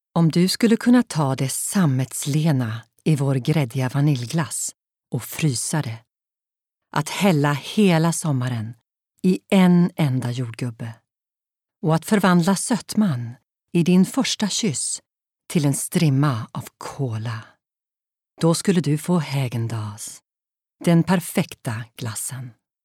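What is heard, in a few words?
Swedish female Commercial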